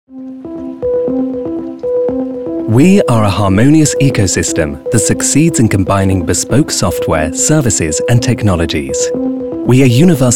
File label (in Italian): corporate istituzionale